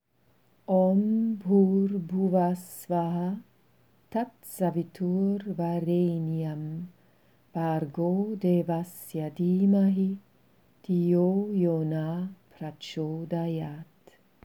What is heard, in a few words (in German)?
Gayatri Aussprache